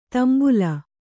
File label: "ತಾಂಬೇಲು", Kannada